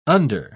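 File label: "under-", British English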